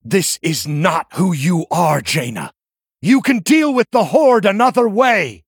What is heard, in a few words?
Male Dragon Victory